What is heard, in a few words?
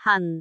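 "han4", Cantonese; googleA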